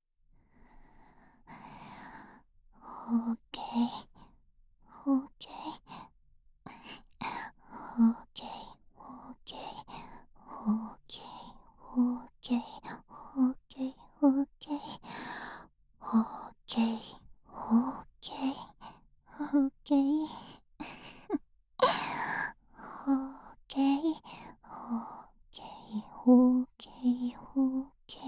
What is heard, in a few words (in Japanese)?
囁き淫語連呼; SEなし